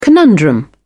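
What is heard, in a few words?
"conundrum", British English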